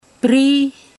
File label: drum, Kadagoi; matukar